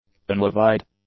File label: "unlevied", English; adjectives